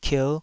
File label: "kierh5", taiwanese syllable